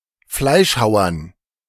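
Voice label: "Fleischhauern", German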